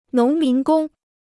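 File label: "农民工", Chinese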